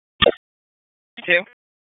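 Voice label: EMS Dispatch, p25; wake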